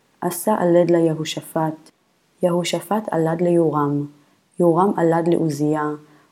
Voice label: Matthew Reading Practice, Aramaic